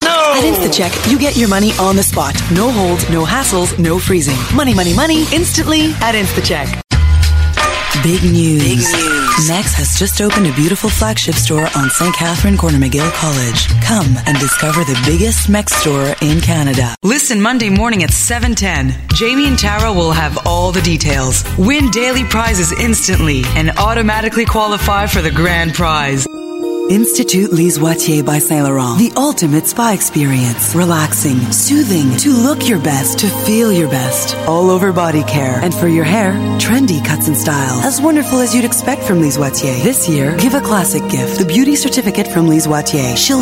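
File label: US English Commercial English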